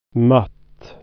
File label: "feeble", swedish